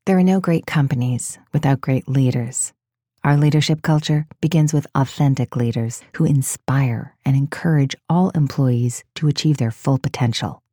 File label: informative inspirational